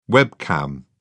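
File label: "webcam", British English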